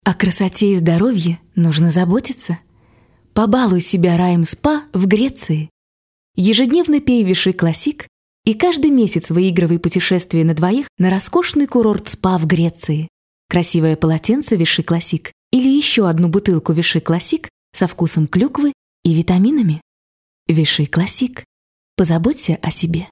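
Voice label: Russian, female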